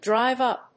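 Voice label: "drive-up", English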